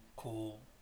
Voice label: "call", pre-lateral